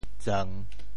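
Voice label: "tseung3", Teochew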